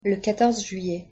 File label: pronunciation fr le quatorze juillet